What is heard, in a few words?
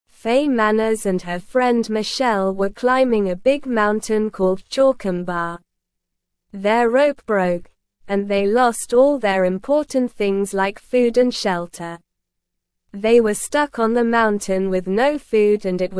English Beginner SLOW Reading; Fay and Michelle Get Lost on Mountain Get Help